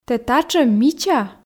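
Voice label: "тэ татшӧм мича", Komi, female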